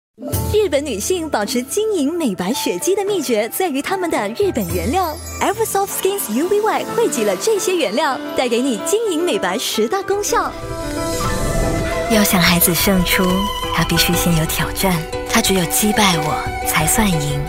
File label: Mandarin